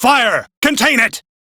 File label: Crew See Fire, Male; Warframe; Railjack Crew; Arbiters Hexis